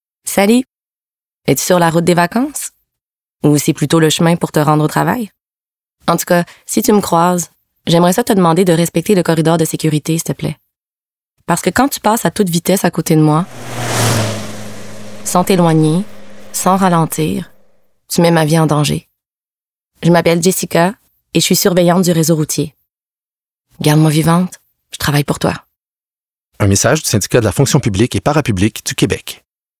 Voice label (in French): femme mixradio; reprise